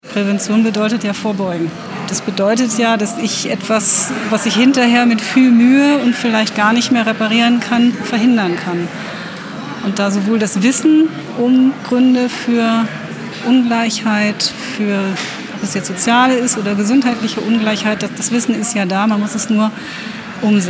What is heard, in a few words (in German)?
Interview